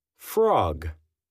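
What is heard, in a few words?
"frog", English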